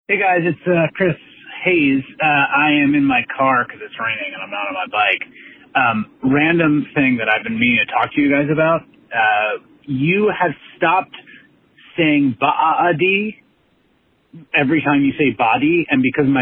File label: leaving voicemail not saying baahdy instead of body